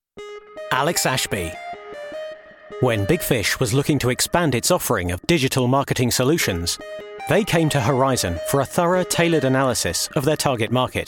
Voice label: corporate

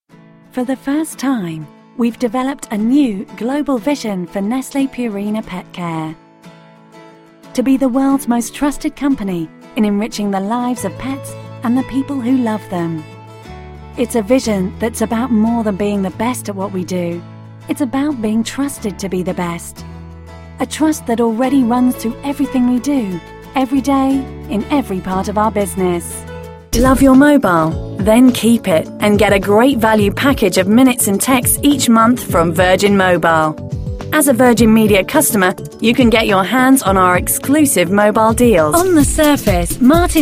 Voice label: Narration

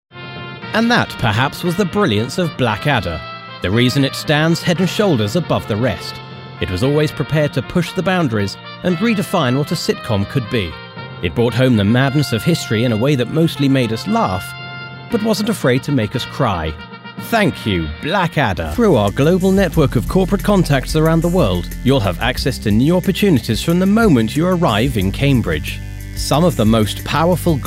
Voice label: NARRATIVE

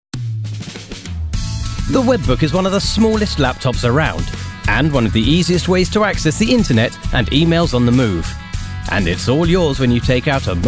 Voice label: COMMERCIALS

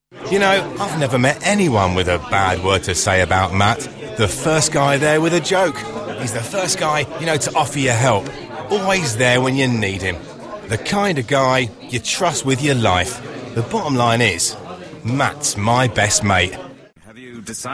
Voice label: Acting

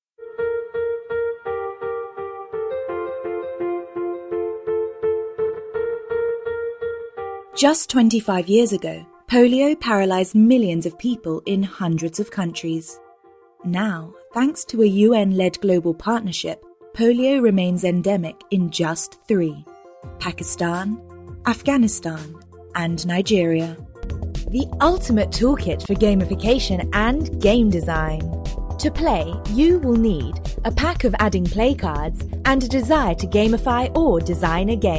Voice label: Corporate

